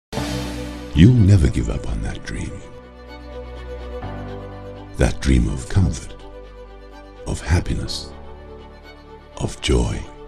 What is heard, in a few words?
TV Spots